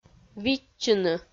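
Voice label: "видчыны", Komi, female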